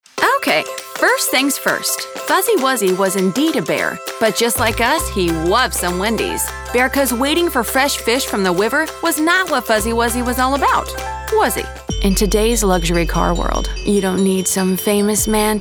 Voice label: Commercial; Wendys